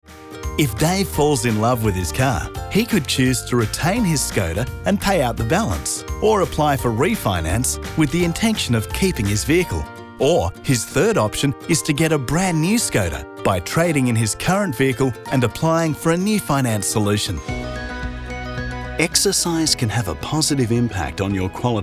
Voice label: narration; VO Demo